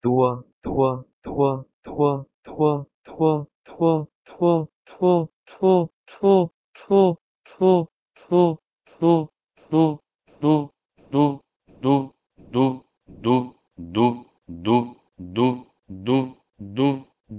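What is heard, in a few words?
Proto-Indo-European tua to Iron dE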